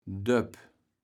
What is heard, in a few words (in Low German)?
"Döpp", kaevels platt